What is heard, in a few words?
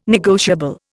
"negotiable", Indian